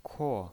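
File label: "kuo", pinyin, Mandarin Chinese, fourth tone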